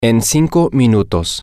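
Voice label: in 5 minutes, Spanish, male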